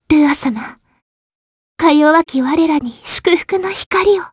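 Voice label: voice, Japanese; Frey; kingsraid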